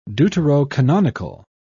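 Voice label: "deuterocanonical", British English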